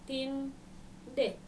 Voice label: "tiŋde", Dinka